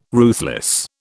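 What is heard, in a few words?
"ruthless", British English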